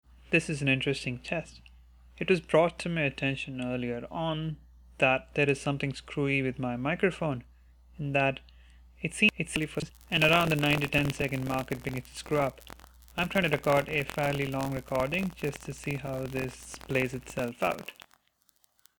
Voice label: mic test